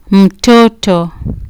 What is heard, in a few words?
"mtoto", Kiswahili